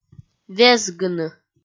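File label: "везгыны", Komi-Zyrian, female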